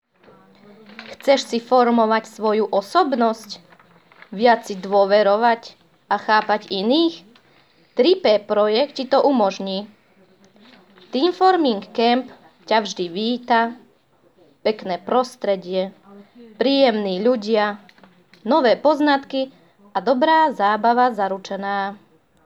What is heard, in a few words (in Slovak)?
Reklama; reklamne videa